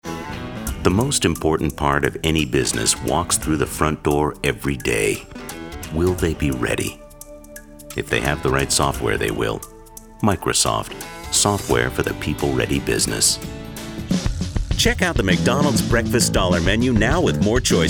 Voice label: men commercial; Sounds like he understands fractals, ties his own flies and plays a mean game of go with his niece--funny, genuine and intelligent